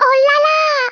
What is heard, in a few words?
"oh dear", French